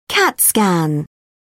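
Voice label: "cat scan", British English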